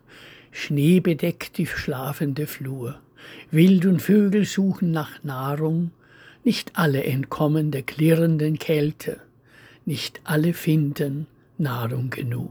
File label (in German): Winter, Lesung